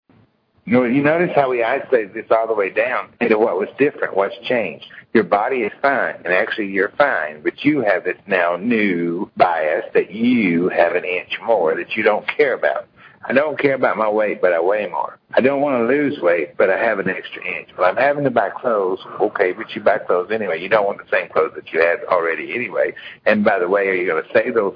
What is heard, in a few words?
rant; The Benefits Of Coitus On Extra Weight